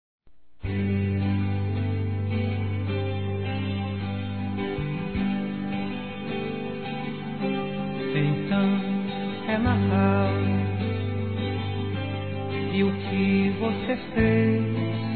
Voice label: NATAL DESEJAMOS, masc; Telemensagens; Natal e Ano novo

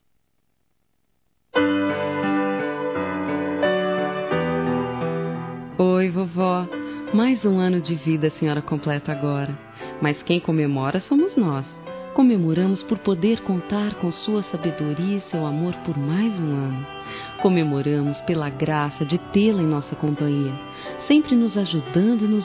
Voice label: ANIV. AVÓ F

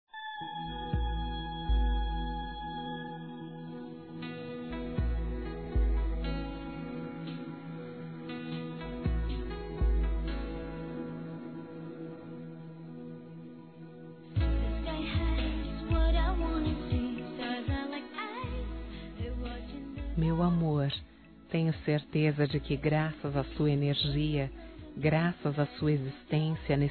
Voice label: BOA TARDE ROMANTICO, fem; Bom dia boa tarde boa noite

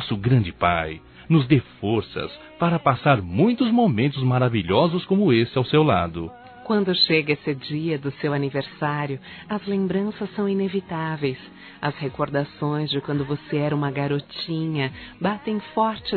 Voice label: FILHA, Telemensagens, Aniversários, Fem; Aniv Familiar

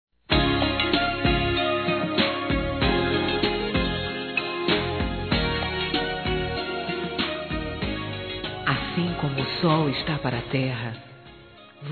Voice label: Picante Feminino; Telemensagens; Sensuais

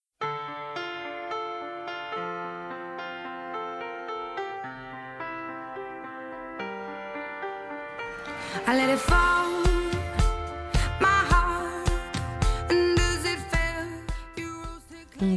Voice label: ANIV CUNHADO (F); Telemensagens 2017; Aniversários; Aniv Familiar

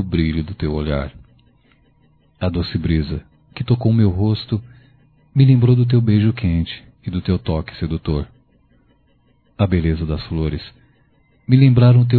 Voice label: Tarde Convidativa Masculino

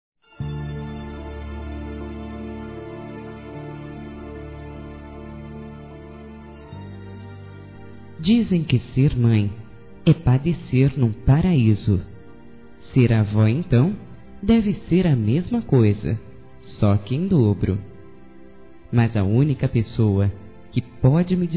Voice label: HOMENAGEM P AVÓ DISTANTE F, Telemensagens; Dia das Mães; Telemensagens 2017

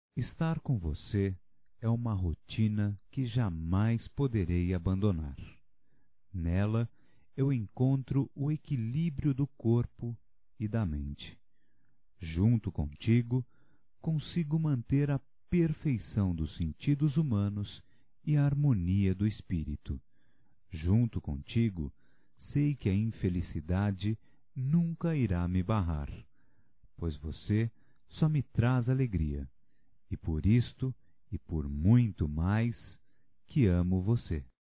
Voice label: Mensagem Romantico Masculino

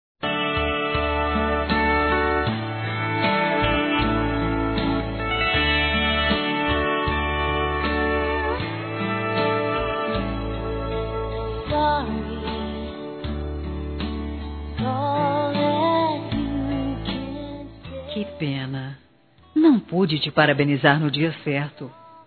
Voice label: Aniversário Atrasado Feminino; Telemensagens 2017; Aniversários; Aniversário atrasado e antecipado

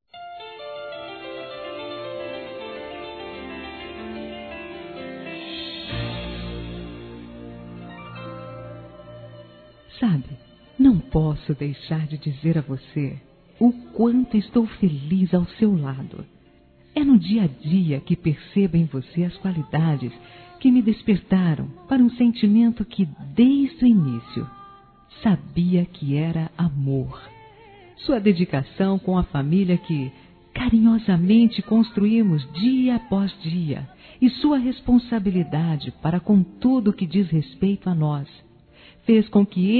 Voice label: ROMANTICA P CASAIS F, Telemensagens Românticas

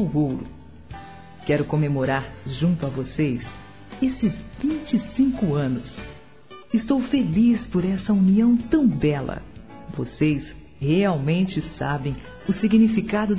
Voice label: BODAS DE PRATA F, Telemensagens; Bodas e Casamento

